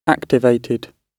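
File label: "activated", British English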